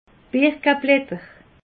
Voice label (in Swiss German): "birikeblatter", alsace